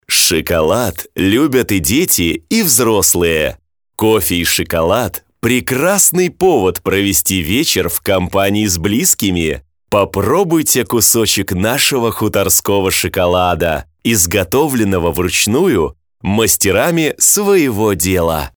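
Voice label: Russian male Sweet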